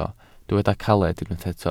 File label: "caled", Welsh